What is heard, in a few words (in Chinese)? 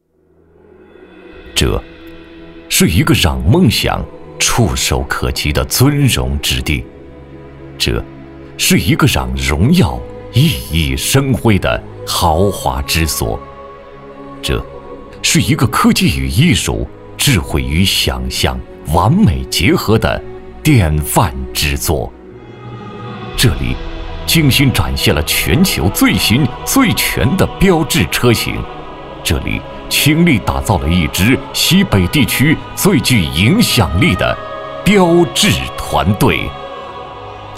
男 专题 磁性 低沉; 4S店专题